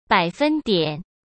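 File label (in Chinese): "百分点", Mandarin Chinese; hsk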